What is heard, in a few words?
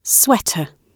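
"sweater" British English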